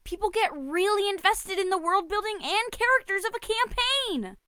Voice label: voice prologue